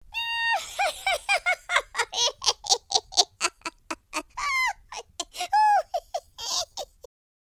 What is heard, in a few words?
happy, Irish; speech